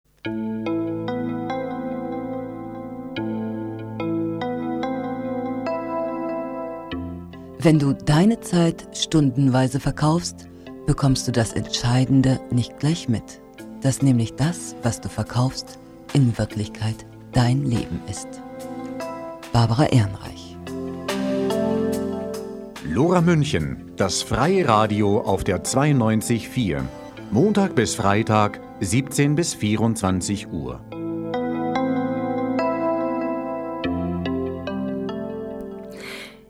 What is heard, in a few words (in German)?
Eigenwerbung; Attac; LoRa